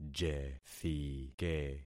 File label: "jethike", concat syllables; Affixing experiment